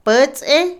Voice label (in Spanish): Otomí, mujer; subir; Movimiento; INALI